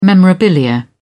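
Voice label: "memorabilia", British English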